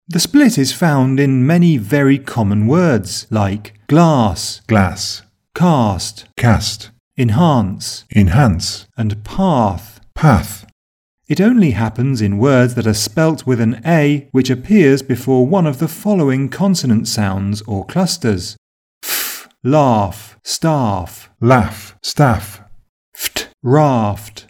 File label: TRAP BATH EXAMPLES